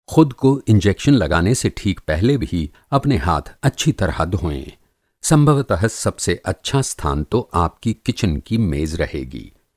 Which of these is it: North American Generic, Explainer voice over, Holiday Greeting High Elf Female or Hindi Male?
Hindi Male